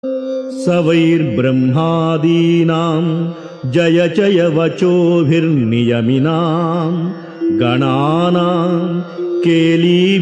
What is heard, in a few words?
Sanskrit; Sri Adi Sankaracharyas Sivananda Lahari; Adi Shankaracharya; श्रीशिवानन्दलहरी २५